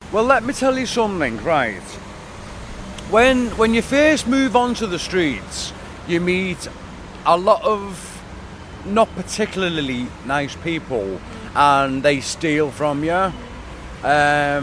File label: Liverpool Caucasian Middle age homeless; europe; british